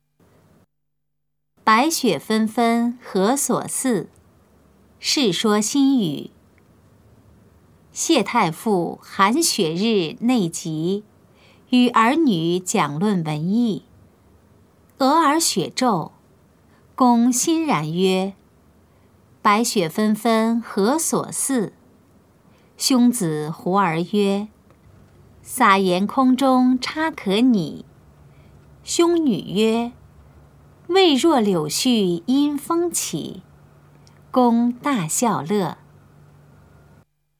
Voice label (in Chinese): putonghua; 普通話; 白雪紛紛何所似